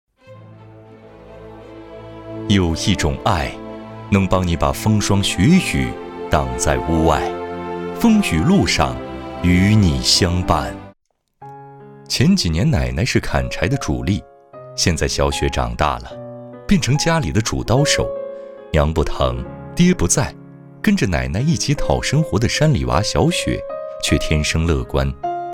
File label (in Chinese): 男 专题 电视片; 广西卫视